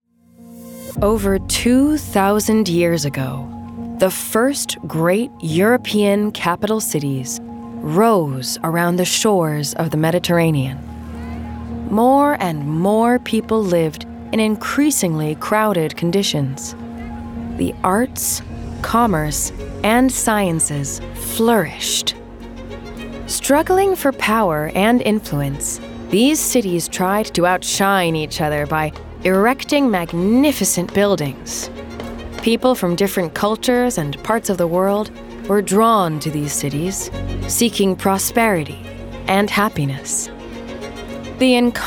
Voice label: female English USA documentary